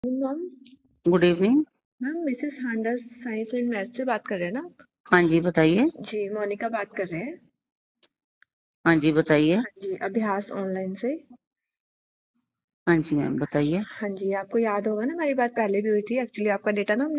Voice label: call recording